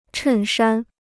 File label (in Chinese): "衬衫", Chinese